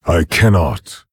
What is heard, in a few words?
Orc, ERROR PLAY; Nerzhul